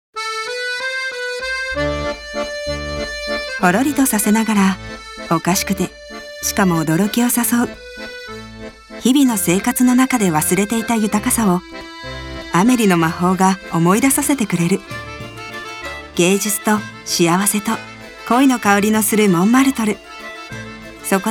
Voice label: japanese movie trailers